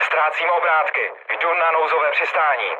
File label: damaged engine, speech cze, mood low; War Thunder